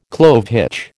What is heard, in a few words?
"clove hitch", American